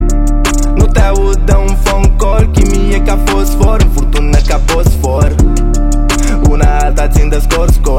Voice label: phonecall